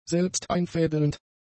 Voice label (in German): "selbsteinfädelnd", German; adjectives